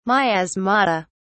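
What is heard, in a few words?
"miasmata", British English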